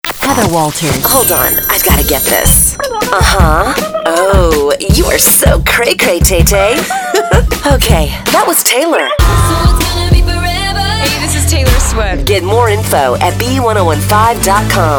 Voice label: Radio Imaging